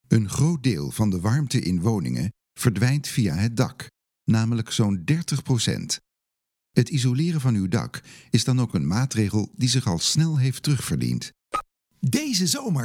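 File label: Dutch male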